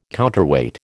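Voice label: "counterweight", American English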